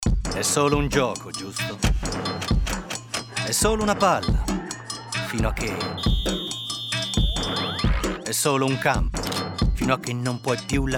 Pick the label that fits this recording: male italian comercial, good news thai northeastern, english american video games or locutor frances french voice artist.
male italian comercial